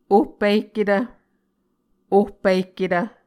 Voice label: "ohpihketv", Muscogee